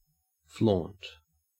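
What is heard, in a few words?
"flaunt", Australian English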